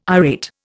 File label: "irate", Indian